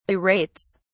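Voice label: "berates", English, British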